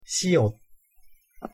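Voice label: "ᄉ", Korean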